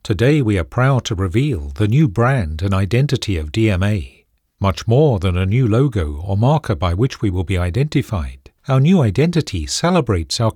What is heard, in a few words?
Corp Internal, voiceovers